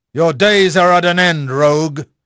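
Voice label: English male; Pirates Of The Caribbean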